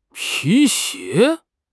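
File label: "pi2 xie2", Mandarin Chinese; HSK3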